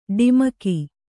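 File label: "ḍimaki" Kannada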